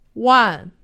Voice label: "wan", Chinese; Survival Chinese